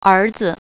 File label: "son", Mandarin